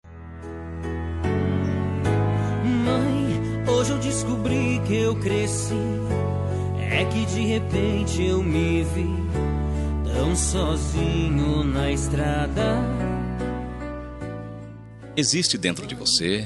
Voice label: futuram mae masc, voz masculina; dia das maes para futura mamae

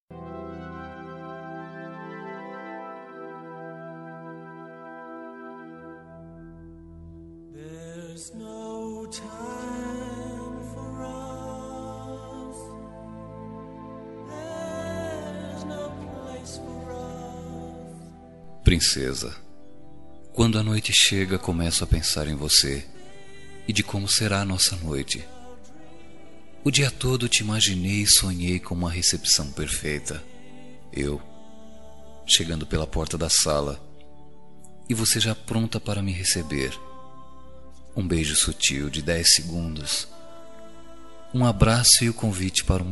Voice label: erotica masc, Voz Masculina; Telemensagem Erótica

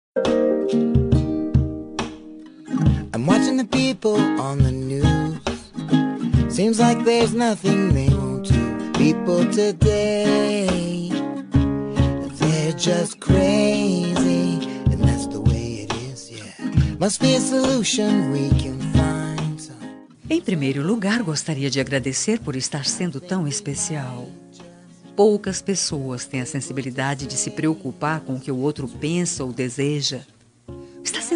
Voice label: ficante, Telemensagem Ficante – Voz Feminina

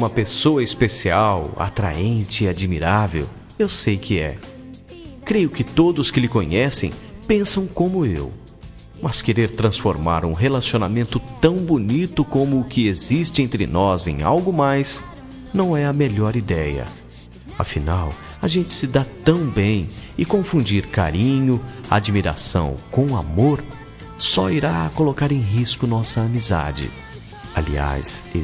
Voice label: apenas bons amigos mas, Telemensagem Resposta – Voz Masculina

